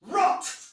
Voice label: Attack, Soldier Male; Sinecure